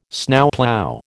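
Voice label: "Snowplough", American English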